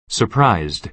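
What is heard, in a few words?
"surprised", American English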